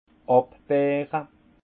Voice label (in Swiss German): "abbeere", alsace; vin; égrappage